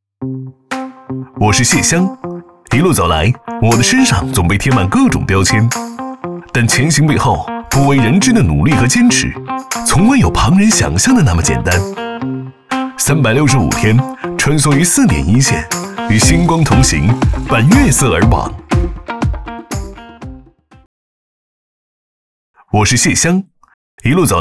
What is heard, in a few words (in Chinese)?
男, 年轻, 城市现代; 蟹乡